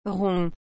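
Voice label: "rond", French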